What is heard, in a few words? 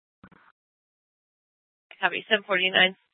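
police dispatch, scanner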